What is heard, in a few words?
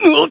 damaged, jp